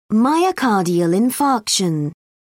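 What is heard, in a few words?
"myocardial infarction", British English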